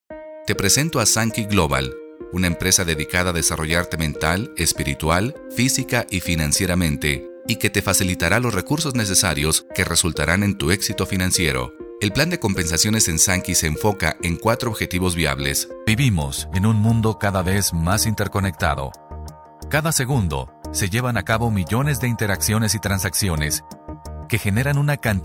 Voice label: Corporate

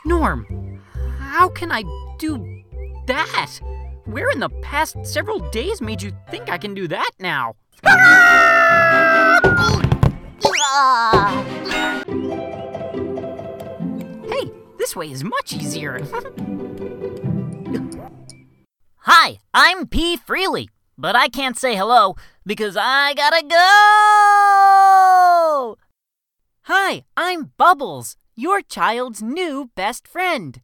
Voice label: animation VO

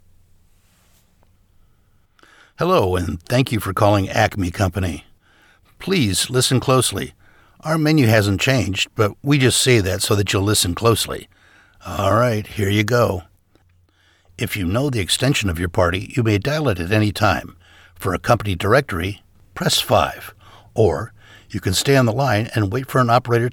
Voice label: Telephone

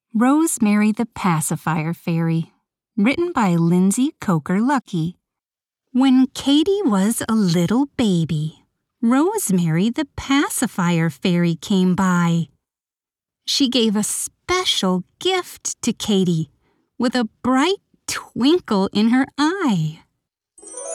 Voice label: Childrens Book

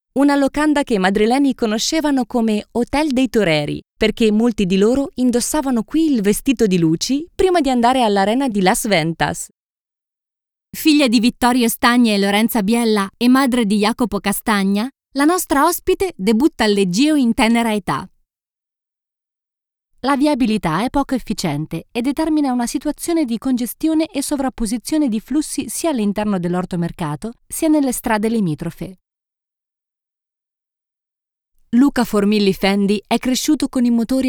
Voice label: documentary

